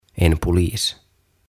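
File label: "En Polis", Swedish, "Poliisi", Finnish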